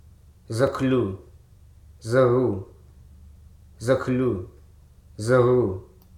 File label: "hackneyed", hruso